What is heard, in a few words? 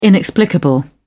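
"inexplicable", British English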